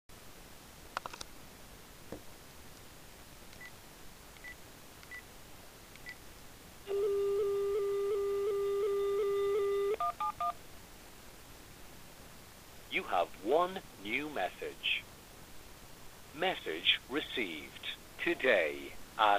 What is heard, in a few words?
voicemail; BKC Solicitors